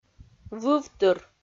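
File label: "вывтыр", Komi, female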